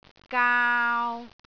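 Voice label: "gaau3", Cantonese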